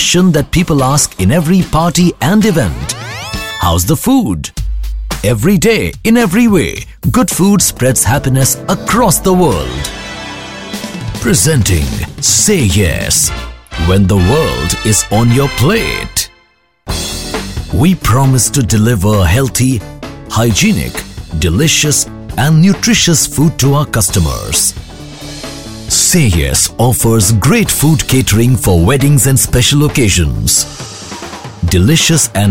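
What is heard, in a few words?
corporate film English voice over; Sayes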